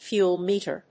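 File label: "fuel meter", English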